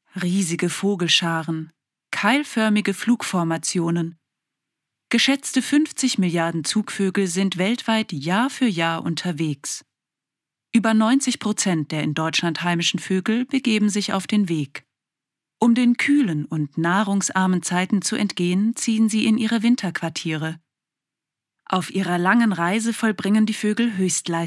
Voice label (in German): Doku Zugvoegel